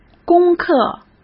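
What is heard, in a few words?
"功课", Mandarin Chinese; chinese-flashcards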